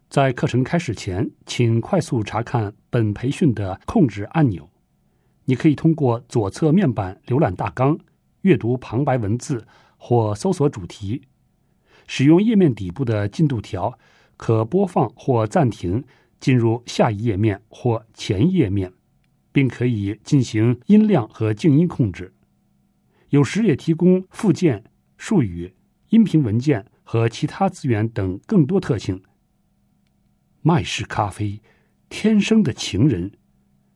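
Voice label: Mandarin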